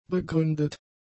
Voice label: "begründet", German; adjectives